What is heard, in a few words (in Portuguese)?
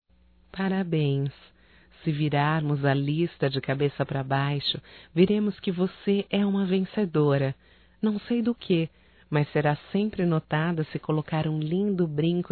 Msg Amiga zueira Feminino; Otimismo; Telemensagens 2017